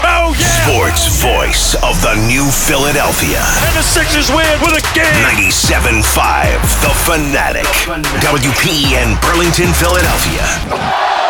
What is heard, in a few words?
RADIO Sportsradio Promo